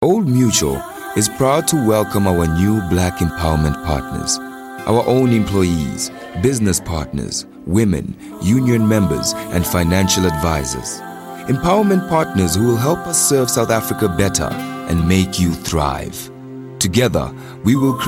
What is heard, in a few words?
M, EN ZA, comm